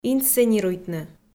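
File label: "инсценируйтны", Komi, female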